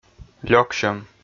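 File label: "лёксьӧм", Komi, male; kpv audio dict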